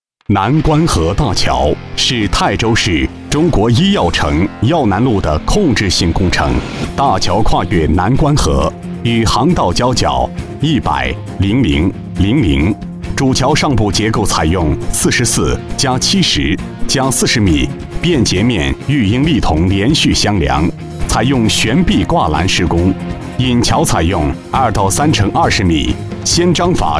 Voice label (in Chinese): 男 专题; 南官河大桥